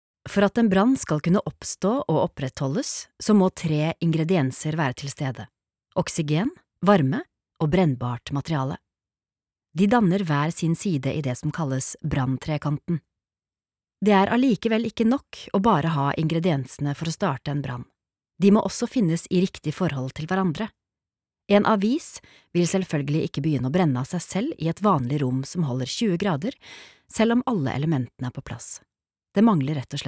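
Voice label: Norwegian e-learning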